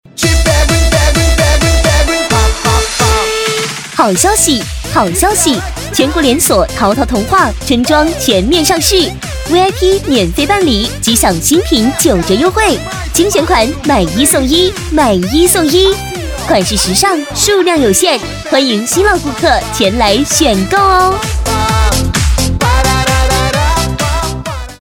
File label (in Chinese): 女 广告促销 童装; 淘淘童装